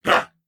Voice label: Attack, Corpus Venus Male